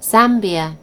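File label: "zambia", British English; gcompris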